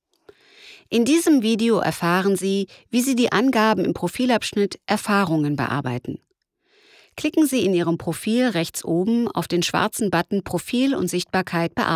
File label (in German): Erklaervideo